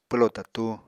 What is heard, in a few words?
"pwlo tatu", Apatani